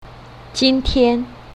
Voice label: "jin1 tian1", mandarin

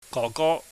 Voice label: "gohgo", Cantonese

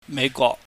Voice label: "meihgwok", Cantonese

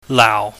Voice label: "laau", chinese

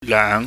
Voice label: "leung", chinese

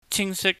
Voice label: "chingsik", cantonese

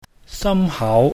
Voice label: "samhau", Cantonese

